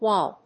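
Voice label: "Waal", English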